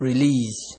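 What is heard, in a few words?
"release", Nigerian Pidgin, male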